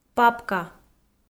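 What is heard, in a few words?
"папка", Komi, female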